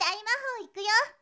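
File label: "daimahoikuyo", girl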